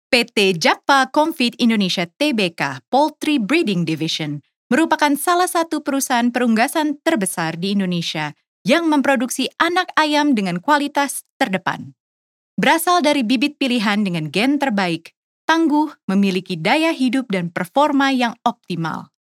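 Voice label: Formal Indonesian Profile; PT. JAPFA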